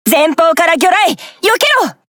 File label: Speech Alarms Torpedo Ahead; Baltimore; Azur Lane